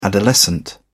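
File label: "adolescent", British English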